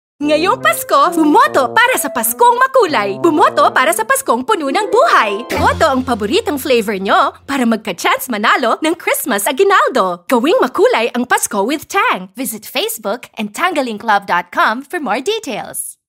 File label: Tagalog, Bold, Exciting